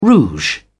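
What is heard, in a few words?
"rouge", British English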